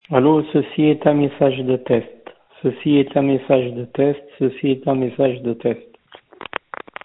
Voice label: voicemail